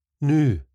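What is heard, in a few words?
"noo", Scottish English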